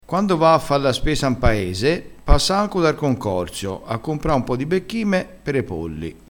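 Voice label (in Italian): "becchime", dialettale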